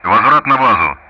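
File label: Speech Sound Russian; Common Player Messages RTB; DCS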